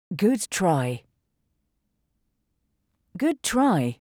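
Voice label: Good try, English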